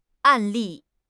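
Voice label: "案例", Chinese; HSK6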